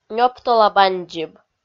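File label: "нёптола бандзиб", Komi, female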